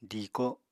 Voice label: "diiko", Apatani